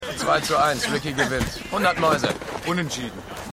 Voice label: Mann; City On A Hill

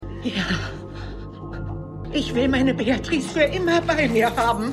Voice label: Kundin, Stimmproben

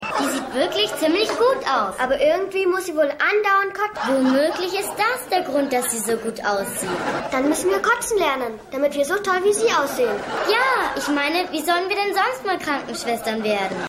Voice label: Maedchen

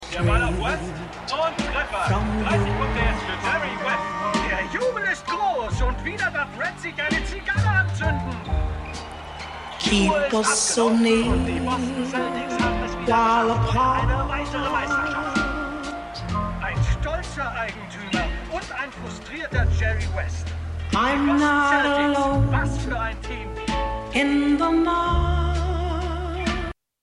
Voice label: Spielkommentator; Winning Time

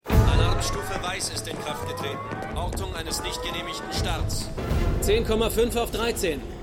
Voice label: Alarmstimme Mitarbeiter; Stimmproben; Eureka

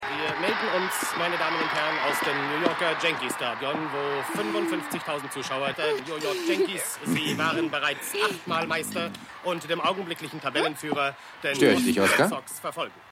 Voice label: Sportkommentator; Maennerwirtschaft

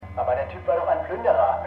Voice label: Radiomoderator; Five Days at Memorial

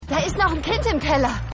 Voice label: Frau; Rescue Me

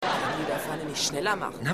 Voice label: Frau In Schlange, Stimmproben; Seinfeld

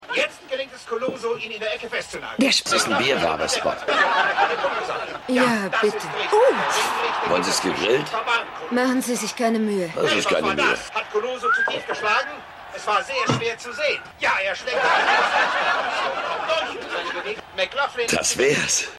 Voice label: TV Kommentator

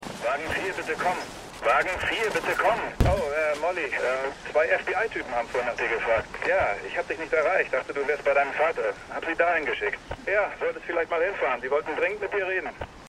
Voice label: Funkstimme; Stimmproben; Fargo

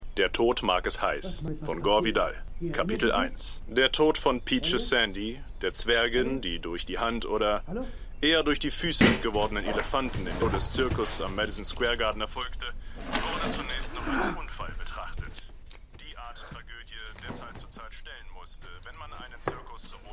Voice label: Hoerbuchsprecher

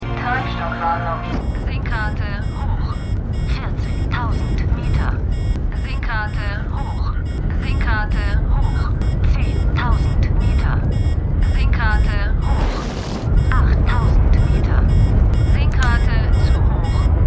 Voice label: Computerstimme; For All Mankind

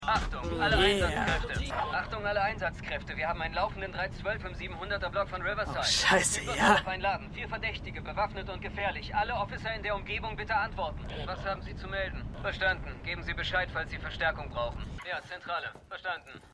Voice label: Funkstimme Zentrale; Shameless

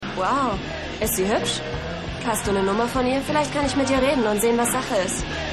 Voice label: Frau; Rescue Me

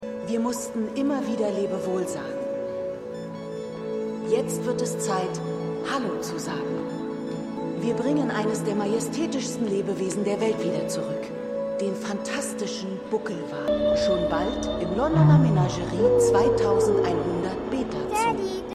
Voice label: Sprecherin; Menagerie 2100; Extrapolations

